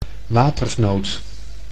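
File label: "watersnood", Dutch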